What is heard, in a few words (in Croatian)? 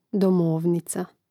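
"domovnica", Hrvatski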